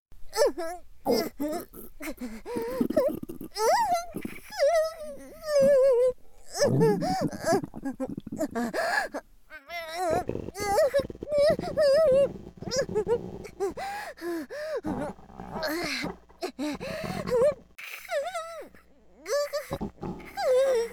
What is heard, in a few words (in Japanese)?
腹痛に耐える OL（排泄間際） 効果音入り